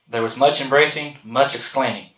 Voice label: male inv 16k